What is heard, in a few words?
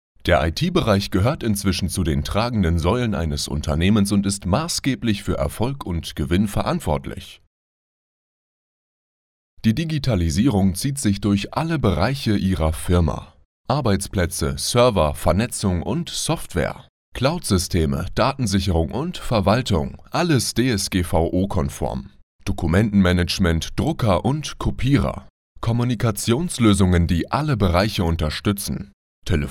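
German male voiceover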